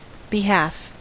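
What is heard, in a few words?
"behalf", American English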